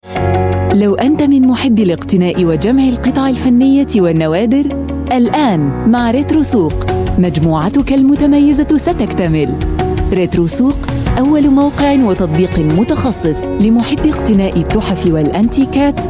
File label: arabic voice over female narrator